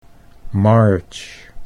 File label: "march", Dutch English